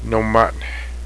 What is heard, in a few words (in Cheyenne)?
fishes, Cheyenne